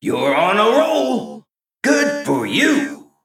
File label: Male Mech Combat Win